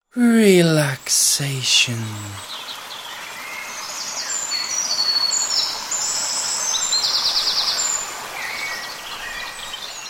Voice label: Male chilled voice saying ‘Relaxation’ with ambient nature sounds; Relaxation (Spoken); Holidays